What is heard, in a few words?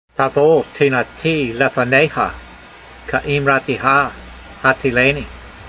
voice, hebrew language; Psalm 119:170; tavo teheenatee lefaneyha, ke'eemrateha hatseelaynee